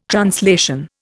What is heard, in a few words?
"translation", Indian